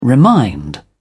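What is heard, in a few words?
"remind", British English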